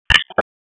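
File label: Raleigh Police Southwest District Tactical, p25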